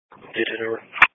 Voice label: Police Patrol, Dispatch

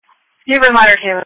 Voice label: Fire Tactical 01

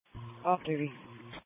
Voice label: Police Patrol 1 (Dispatch)

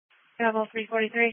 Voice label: EMS Dispatch

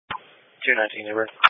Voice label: Police Patrol 1 (Dispatch)

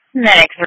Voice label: EMS Dispatch

